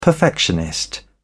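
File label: "perfectionist", British English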